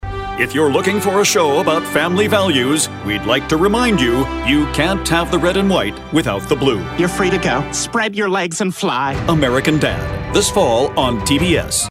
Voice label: TV Promo voice over; American Dad